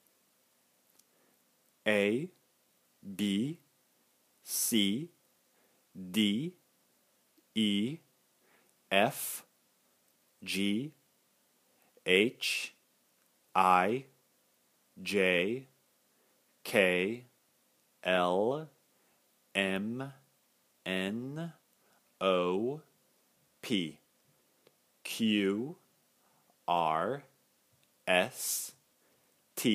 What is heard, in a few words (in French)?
anglais alphabet non chanté